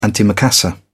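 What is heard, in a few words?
"antimacassar", British English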